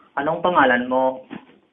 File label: name tagalog